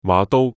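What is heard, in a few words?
"馬刀" Cantonese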